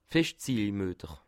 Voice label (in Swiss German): "feschtzieh", alsace